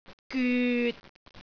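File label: "gyut6", Cantonese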